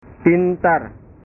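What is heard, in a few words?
"pintar", Indonesian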